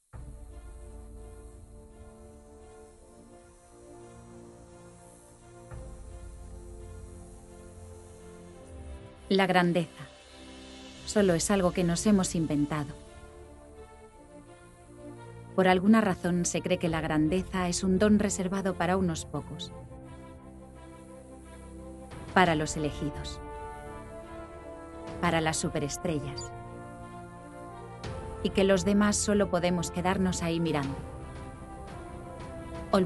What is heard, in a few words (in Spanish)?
Doblaje Publi motivacion; Nike